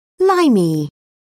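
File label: "limey", British English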